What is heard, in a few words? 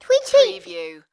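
Toddler Tweet Tweet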